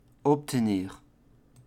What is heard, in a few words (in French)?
"obtenir", French